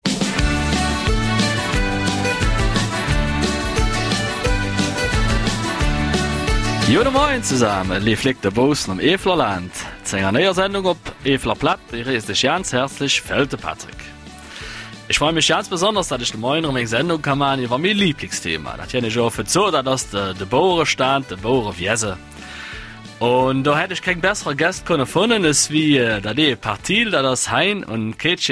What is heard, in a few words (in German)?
Mundart; Mirfelder Milchbauern